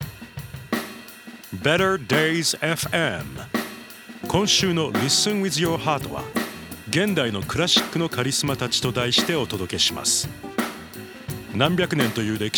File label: DJ Japanese